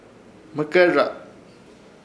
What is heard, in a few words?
"Hmɨkerraz" guampa de mate, Ishir Chamacoco